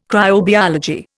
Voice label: "cryobiology", Indian